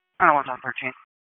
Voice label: PS TAC, p25; wake

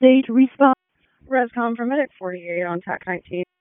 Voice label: PS TAC, p25

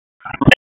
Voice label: PUBLIC SERVICES, p25; WAKE FOREST

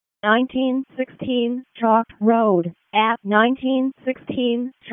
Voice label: WC FD ALERT, p25; wake p25

